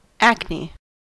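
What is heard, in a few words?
"acne", US English; pronunciation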